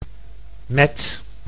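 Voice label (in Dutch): "met" Dutch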